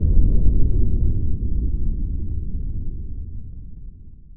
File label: uh oh